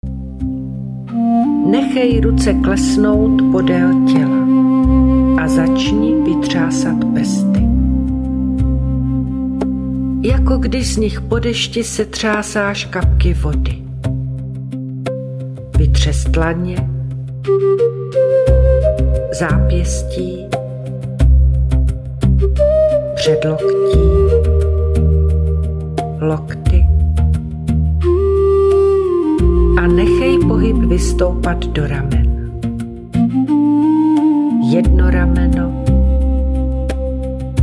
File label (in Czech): Dynamicka meditace ukazka; navrat k sobe vedene meditace